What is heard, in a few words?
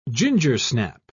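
"gingersnap", English, British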